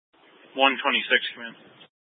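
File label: Incident Tac 2, Scanner audio recording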